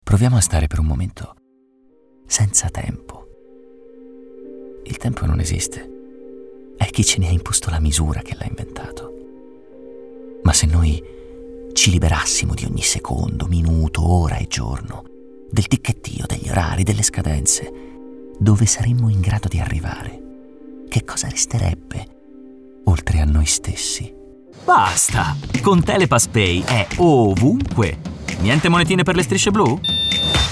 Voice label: ITALIAN VOICES Cool Commercials, male voices

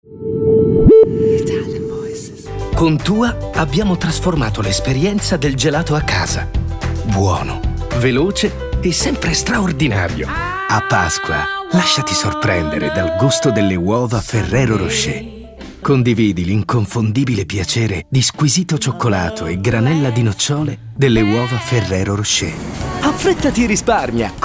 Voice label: ITALIAN VOICES Soft Commercials, male voices

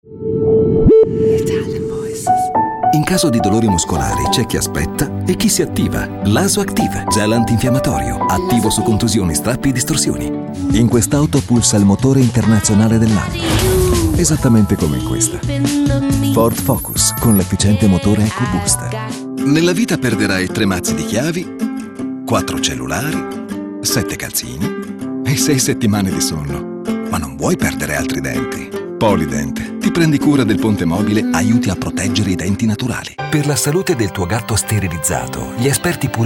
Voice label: ITALIAN VOICES Reassuring Friendly, male voices

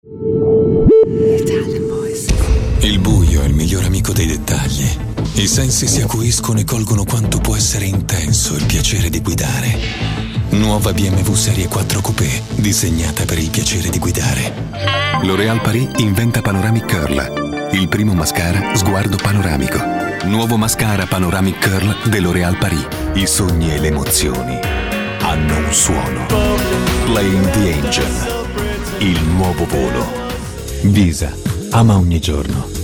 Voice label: ITALIAN VOICES Cool Persuasive, male voices

